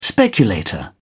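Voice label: "speculator", English, British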